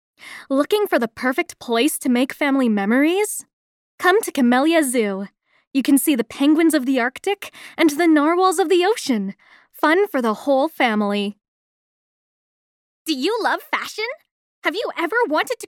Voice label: female canadian voice over sales

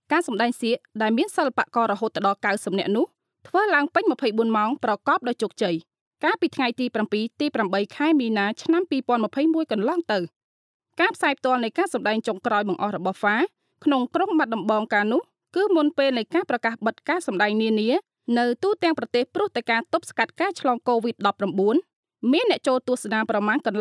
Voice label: female khmer voice over upbeat; cambodian

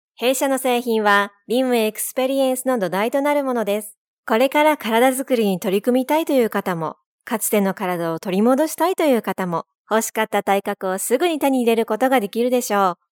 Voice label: female japanese voice over upbeat

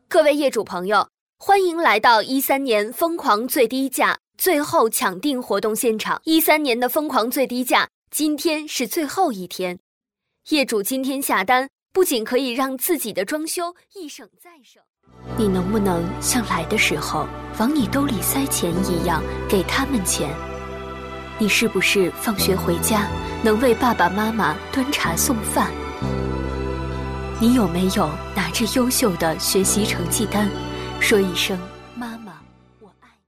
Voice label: female chinese voice over sales, mandarin

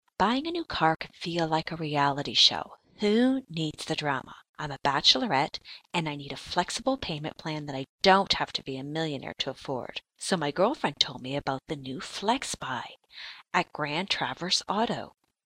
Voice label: female canadian voice over sales

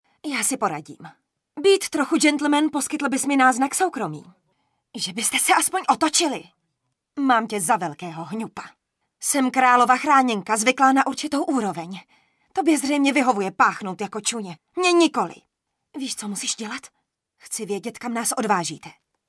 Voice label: female czech voice over character

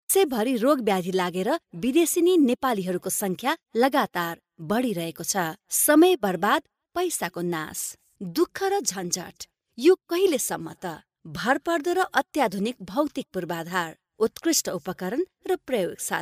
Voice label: female nepali voice over narrative

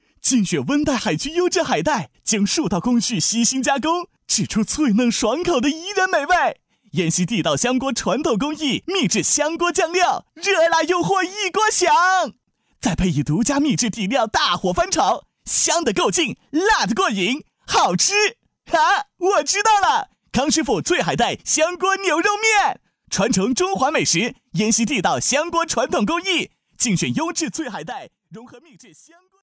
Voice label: male chinese voice over talent sales; mandarin chinese

